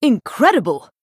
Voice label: Female Night Elf WOW